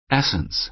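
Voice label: "essence", American English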